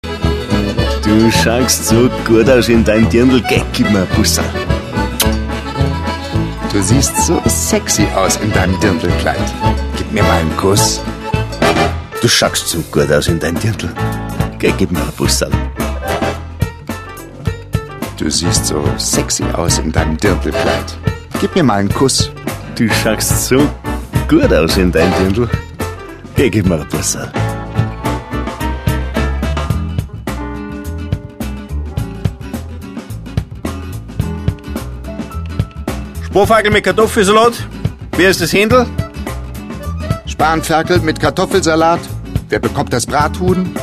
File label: grooves bayerisch locals